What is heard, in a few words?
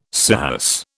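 "scirrhus", British English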